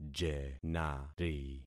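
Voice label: "jenari", concatenated syllables; Affixing experiment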